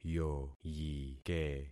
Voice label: "yoyike", concat syllables; Affixing experiment